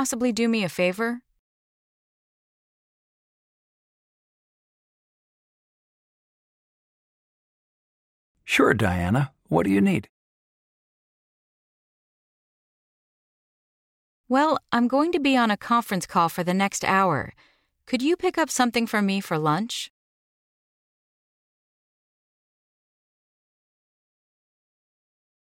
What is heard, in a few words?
Pronunciation Practice; Connectivity 3